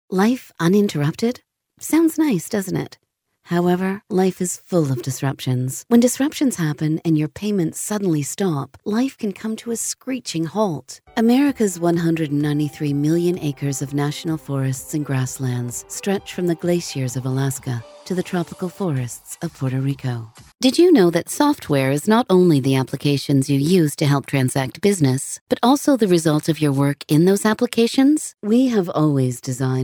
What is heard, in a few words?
english american corporate videos